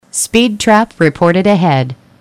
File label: Approach Speed Cam, eng; waze